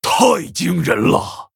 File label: Male Orc Ogre Wow, zh